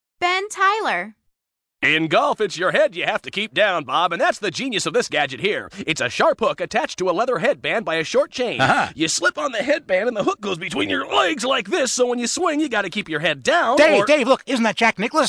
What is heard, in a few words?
Commercial, Male voices